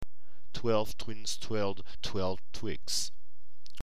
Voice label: twelve, tongue twisters